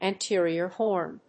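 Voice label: "anterior horn", English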